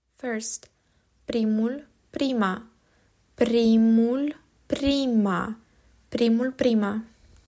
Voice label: "First", romanian